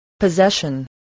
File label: "possession", English